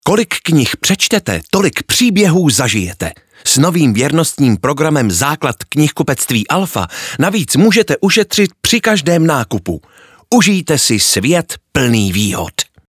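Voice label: Czech, male, commercial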